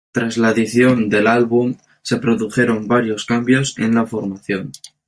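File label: "cambios", spanish; mozilla voice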